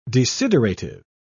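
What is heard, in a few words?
"desiderative", British English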